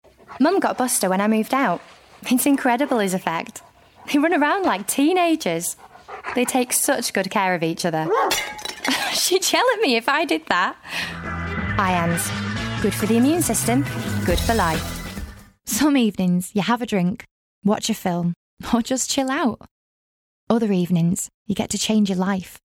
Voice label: natural read